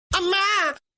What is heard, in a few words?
Tamil Funny Message, ringtone